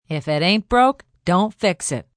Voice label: Aint Broke F, Sayings, female; Talking; VoiceBox